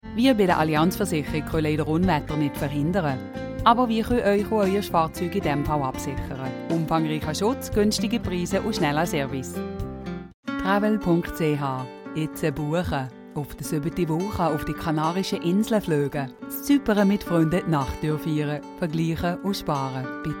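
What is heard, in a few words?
German, General, Swiss; GoLocalise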